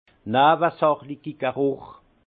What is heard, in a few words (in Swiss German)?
"newesachligi", Alsace; vin; arômes secondaires